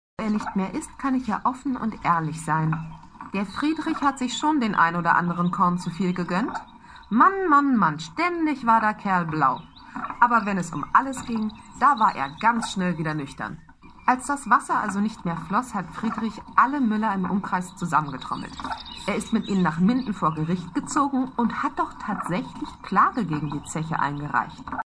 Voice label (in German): hoerprobe storytelling